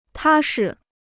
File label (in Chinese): "踏实", Chinese